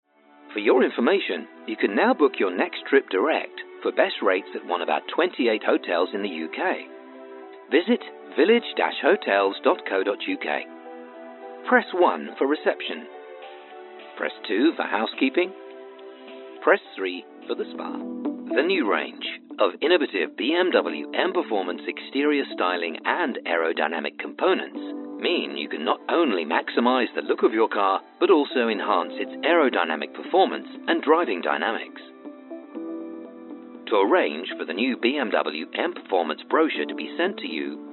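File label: On Hold, male voice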